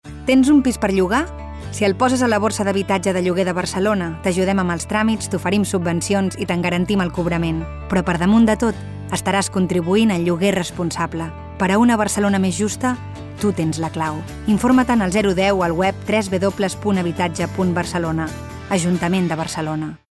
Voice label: female catalan rent comercial